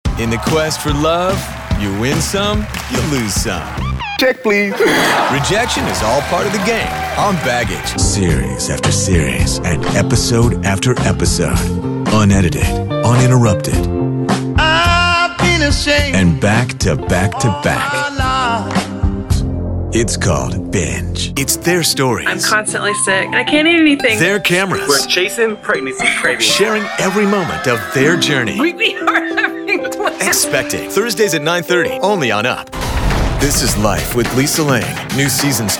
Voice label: promo men